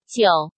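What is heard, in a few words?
"Jiǔ", Chinese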